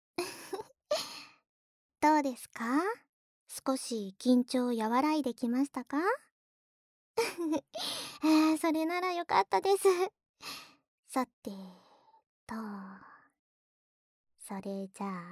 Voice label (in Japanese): アイドルによる耳かき, ASMR; 味わってくださいねー♪